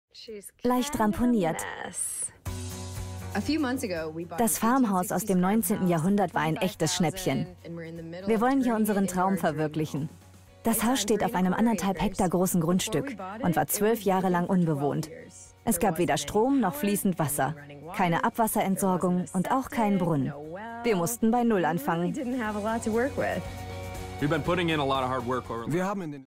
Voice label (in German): doku haus